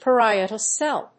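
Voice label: "Parietal Cell", English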